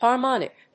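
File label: "harmonic", English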